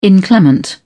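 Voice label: "inclement", British English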